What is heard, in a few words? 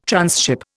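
"tranship", Indian English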